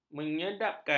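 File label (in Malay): "menyedapkan", Malay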